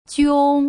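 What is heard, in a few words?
"jiong", Putonghua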